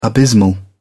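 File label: "abysmal", English, British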